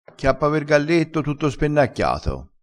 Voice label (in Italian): "spennacchiato", crespinese